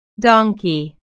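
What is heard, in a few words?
"donkey", English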